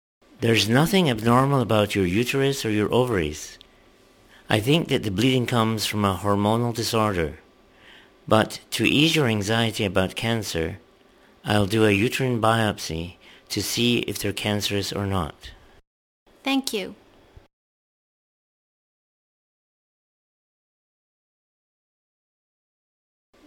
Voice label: Conversation